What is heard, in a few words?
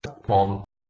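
"dhghmon", Proto-Indo-European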